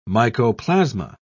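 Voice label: "mycoplasma", British English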